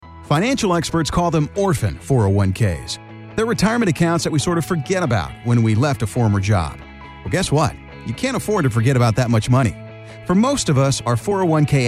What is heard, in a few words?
Commercial, voice over